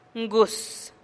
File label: "nguus", niña